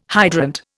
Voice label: "hydrant", Indian